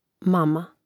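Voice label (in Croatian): "mama", Croatian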